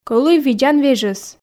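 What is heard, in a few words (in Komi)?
кӧлуй видзан вежӧс, Komi, female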